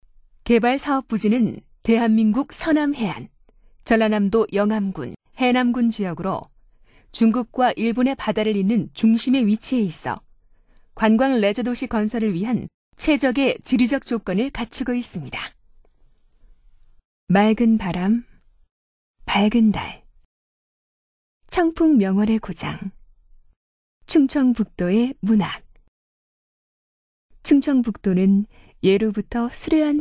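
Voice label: Korean female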